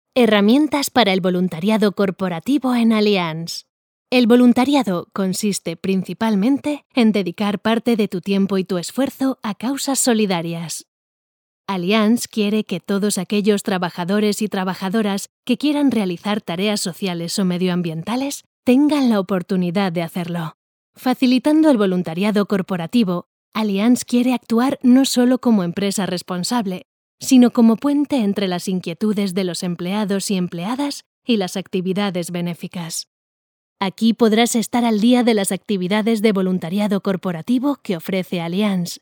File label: e-learning